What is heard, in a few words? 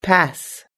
"pass", US English